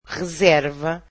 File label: portuguese reservation